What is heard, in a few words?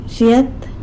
"zhyet" cat; san lucas quiavini